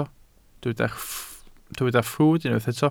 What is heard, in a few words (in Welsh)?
"ffrwd" Welsh